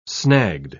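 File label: "snagged", British English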